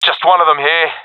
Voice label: sees one enemy, professional epic; Counter Strike Model Pack; CS:GO